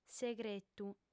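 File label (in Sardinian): "segretu", female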